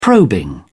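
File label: "probing", British English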